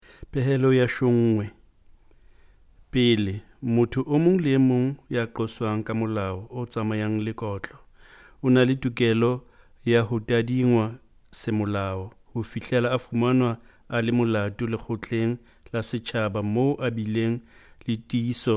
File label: Sesotho